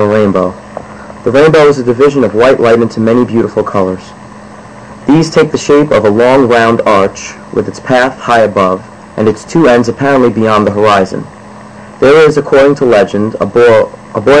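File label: new york, International Dialects of English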